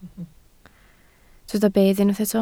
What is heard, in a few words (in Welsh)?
"bedd", Welsh